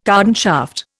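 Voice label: "cardan shaft", Indian English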